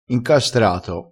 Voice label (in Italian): "incastrato", crespinese